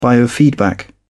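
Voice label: "biofeedback", English, uk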